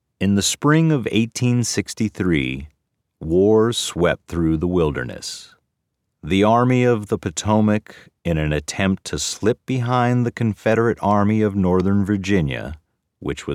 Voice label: Civil war narration